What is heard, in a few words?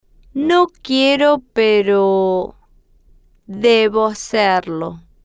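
no Quiero Pe Debo Hacerlo, Spanish, female, slow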